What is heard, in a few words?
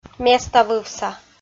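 "меставывса" Komi, female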